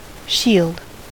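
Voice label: "shield", US English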